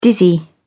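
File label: "dizzy", British English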